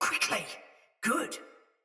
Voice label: Block Soldier Mace Agent Female; Battle; Sinecure Melee